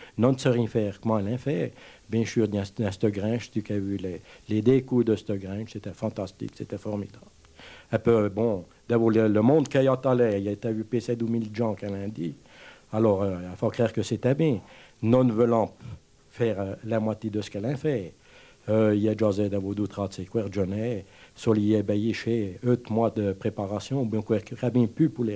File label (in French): Emission en patois